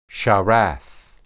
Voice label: "sharath", Hebrew